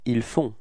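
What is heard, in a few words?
"faire ils", French; frans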